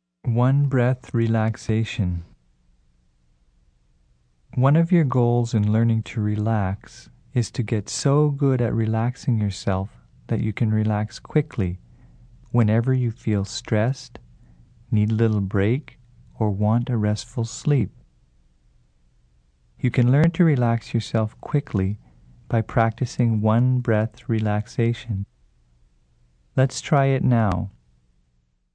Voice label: breath relaxation